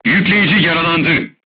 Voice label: voice message charger wounded, crew tanks turkish